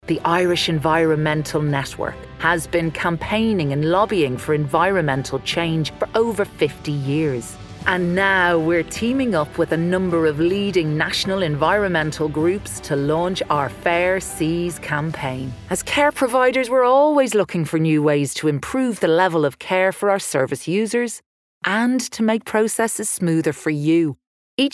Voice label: Corporate